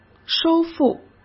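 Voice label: "收复", Mandarin Chinese; chinese-flashcards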